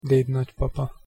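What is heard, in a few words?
"dednagypapa", Hungarian pronunciation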